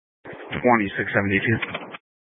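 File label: Sheriff Dispatch, scanner audio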